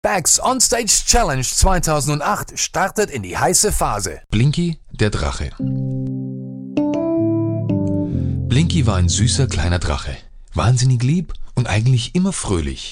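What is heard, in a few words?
DE M various, German, male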